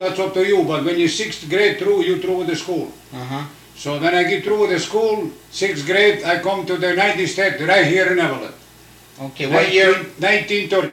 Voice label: Personal history with 88 year old male Eveleth Minnesota, american english dialect